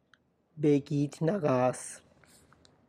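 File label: Tlacochahuaya Zapotec; black butterfly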